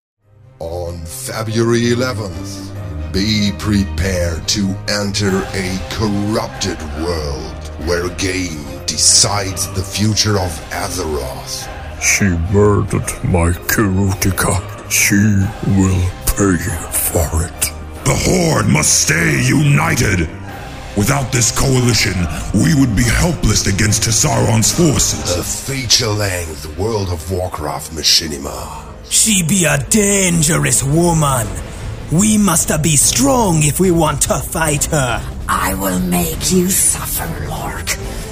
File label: Radio Audio Trailer; Firemage